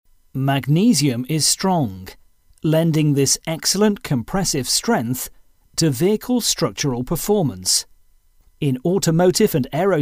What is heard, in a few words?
narration